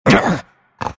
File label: anger; lone druid